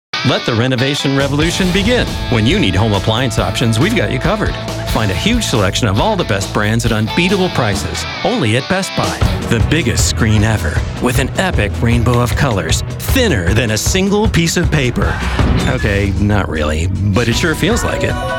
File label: Commercials